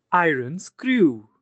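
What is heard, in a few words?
"screw", English; magnet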